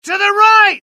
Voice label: alert, UK; mohaa; dialogue

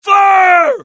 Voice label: alert, dialogue generic US; mohaa

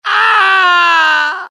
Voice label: death, UK; dialogue; mohaa

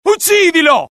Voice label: alert, dialogue IT; mohaa; attack

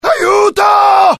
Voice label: fear, IT; mohaa; dialogue

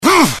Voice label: anger, dialogue IT; mohaa; attack

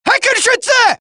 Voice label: German; mohaa

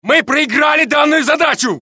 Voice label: Russian; mohaa; dialogue

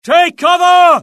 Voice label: alert, UK; mohaa; dialogue

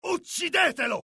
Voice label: alert, dialogue IT; mohaa; attack

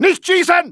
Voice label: fear; dialogue; mohaa

